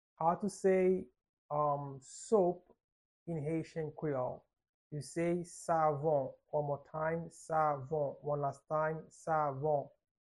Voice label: "Savon" Haitian Creole pronunciation by a native Haitian Creole teacher; How to say Soap in Haitian Creole